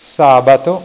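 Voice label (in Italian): "sabato", Italian; tijden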